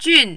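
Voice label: "jun", Mandarin, fourth tone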